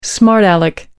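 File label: "smart aleck", English, British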